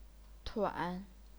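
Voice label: "tuan", chinese pinyin, third tone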